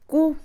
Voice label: mitad, Mixe, Mujer; Cantidad; INALI

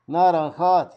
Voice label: "anaranjado", Zapoteco Magdalena Ocotlan; Haspelmath

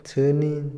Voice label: "espada", Otomí, hombre; INALI

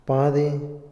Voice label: "saber", Otomí, hombre; INALI

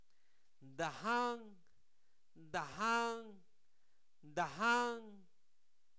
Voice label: campo, Zapoteco, santo domingo albarradas

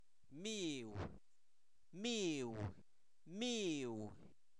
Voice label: amigo, Zapoteco, santo domingo albarradas; INALI; humano

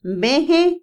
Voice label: Otomí, mujer; viernes; INALI

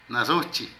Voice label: Ebrio, Zapoteco de Petapa; Cuerpo; Vocabulary

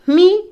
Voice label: Otomí, mujer; prestar; Posesión; INALI

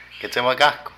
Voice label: Anzuelo, Zapoteco de Petapa; Vocabulary

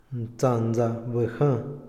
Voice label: "carro", Otomí, hombre; Moderno; INALI

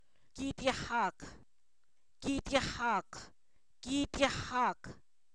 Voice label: Zapoteco, san miguel albarradas; oreja; cuerpo; INALI

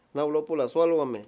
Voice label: "culto", Mayo; Religón y creencias

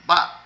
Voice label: Sepulcro, Zapoteco de Petapa; Vocabulary

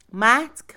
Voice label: Mixe, Mujer; dos; Números; INALI

